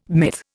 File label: "myth", Indian